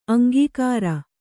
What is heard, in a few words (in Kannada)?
"ಅಂಕಕಾರ", Kannada